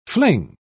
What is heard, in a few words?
"fling", pronounce